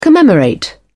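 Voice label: "commemorate", English, British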